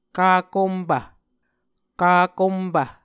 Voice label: "kakompv", Muscogee; Mvskoke Words with Voicing Consonants; A Dictionary of Creek/Muskogee; SIL International

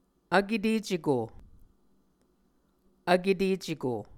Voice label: "vketeceko", Muscogee

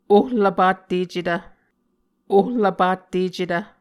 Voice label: "ohlvpattecetv", Muscogee

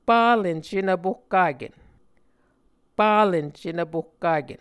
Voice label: "palen cenvpohkaken", Muscogee

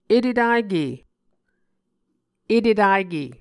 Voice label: "etetake", muscogee

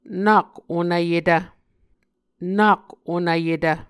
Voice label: "nak onvyetv", Muscogee

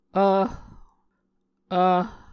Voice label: "v", Muscogee

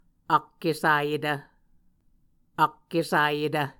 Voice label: "akkesayetv", Muscogee; Mvskoke Words with Repeated Consonants; A Dictionary of Creek/Muskogee; SIL International

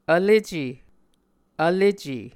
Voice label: "vlece", Muscogee

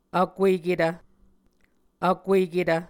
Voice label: "vkueketv", Muscogee

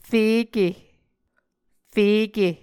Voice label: "feke", muscogee

